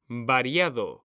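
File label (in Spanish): "VARIADO", Spanish, male; mekanta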